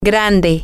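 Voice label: big, Spanish, female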